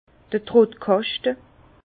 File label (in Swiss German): "trottkaschte", Bas Rhin; vin; claie